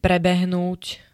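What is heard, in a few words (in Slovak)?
"prebehnúť", Slovak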